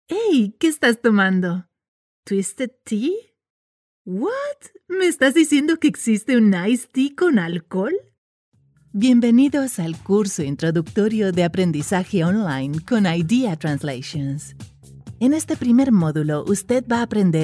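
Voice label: Latin American SPANISH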